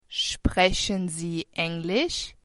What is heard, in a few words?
do you speak english, german